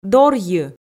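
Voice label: "дорйы", Komi, female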